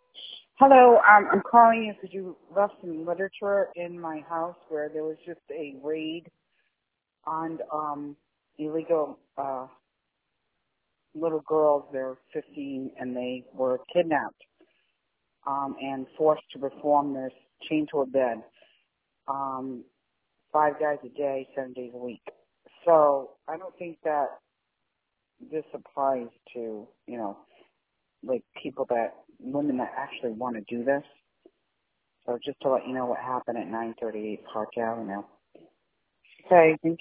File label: voicemail